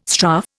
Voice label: "strafe", Indian

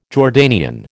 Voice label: "Jordanian", American

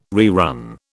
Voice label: "rerun", British

